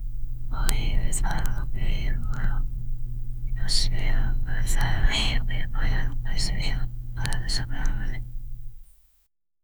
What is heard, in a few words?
child whispering quiet wh